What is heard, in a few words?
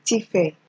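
"tfare", Nigerian Pidgin, female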